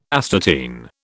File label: "astatine", British